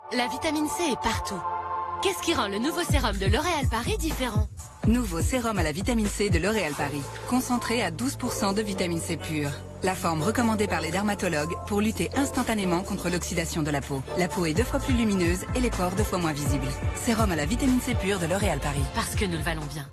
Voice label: Publicite; L'OREAL Revitalift Serum vitamine C pure

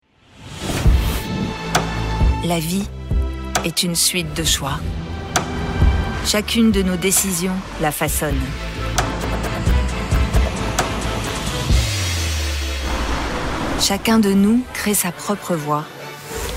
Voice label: pub sensuelle posee; VOLKSWAGEN SUV

